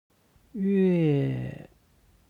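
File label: "yue", Chinese; 月; HSK Level One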